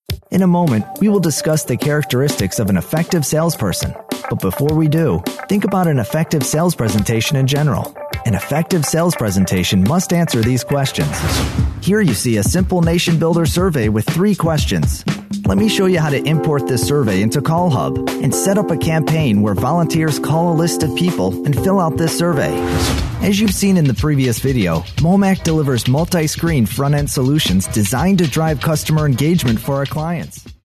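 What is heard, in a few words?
American English, male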